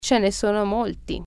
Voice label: there are many, italian